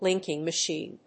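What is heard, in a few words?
"linking machine", English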